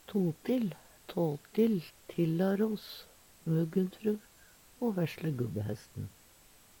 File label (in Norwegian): totill, Numedalsmål; Substantiv hankjønn; Uttrykk; totill, tåtill, tillaros, muggenfru o vesle gubbehesten